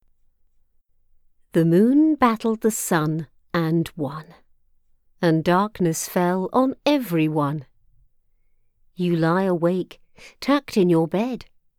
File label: Childrens Audiobook